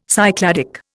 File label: "Cycladic", Indian